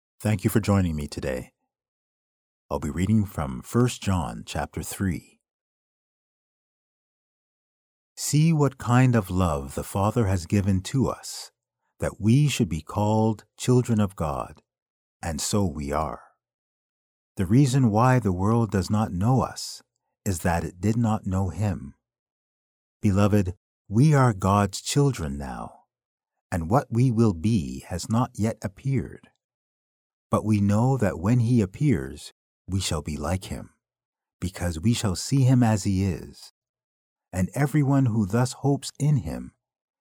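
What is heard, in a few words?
Bible Narration, voiceover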